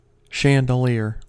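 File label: "chandelier", US English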